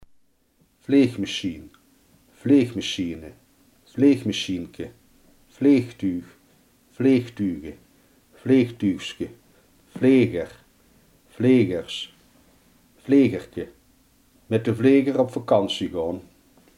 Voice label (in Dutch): "vliegtuig", limburgse